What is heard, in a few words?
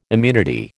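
"immunity", American English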